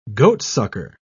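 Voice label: "goatsucker", British English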